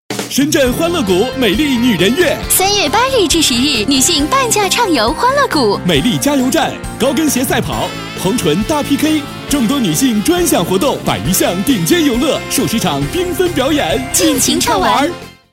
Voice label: 男 广告 激情欢快; 深圳

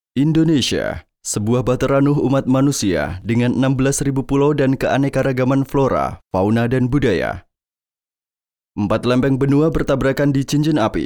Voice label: 印尼语男 自然讲述

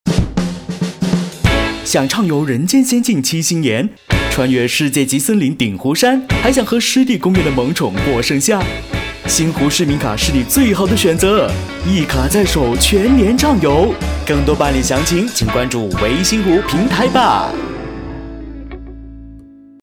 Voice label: 男 广告 国语; 微星湖

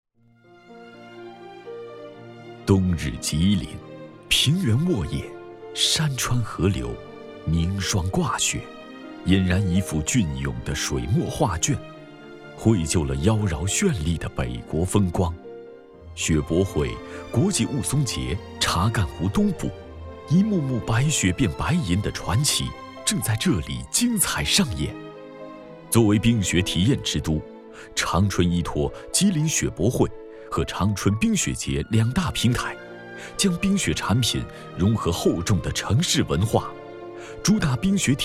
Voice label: 男 城市宣传 大气

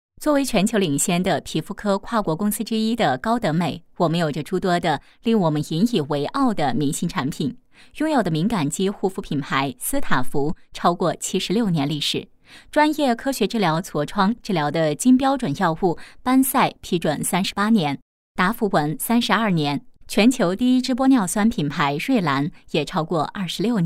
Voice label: 女 新闻; 皮肤健康公司高德美

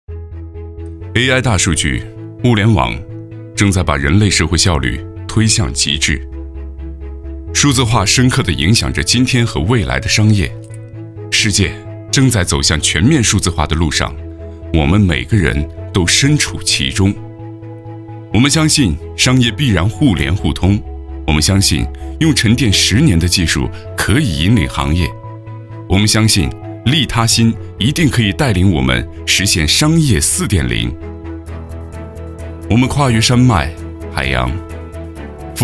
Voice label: 男,科技感,AI,大数据

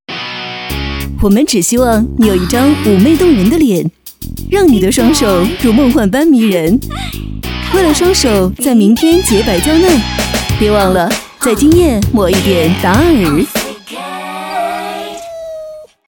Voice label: 女 电视广告 化妆品

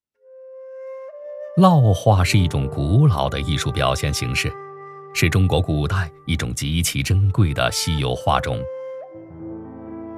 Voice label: 男 非遗介绍 烙画

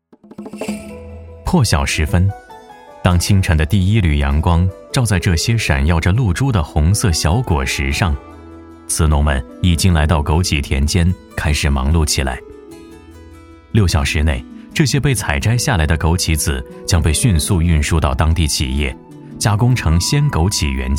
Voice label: 男 平实讲述专题片; 中宁枸杞片段

